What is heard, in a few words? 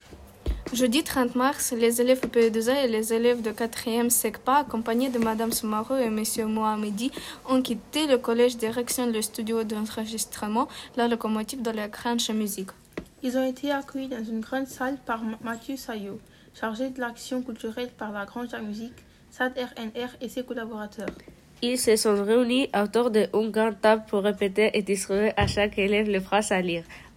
PODCAST SLAM